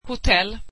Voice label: swedish hotel

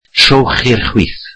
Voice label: welsh Turn left

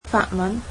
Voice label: "French", cantonese

